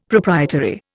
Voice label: "proprietary", Indian; Dictionary

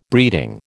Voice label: "breeding", American

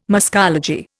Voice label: "muscology", Indian

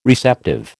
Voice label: "receptive", American

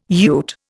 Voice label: "ute", Indian

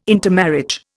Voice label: "intermarriage", Indian

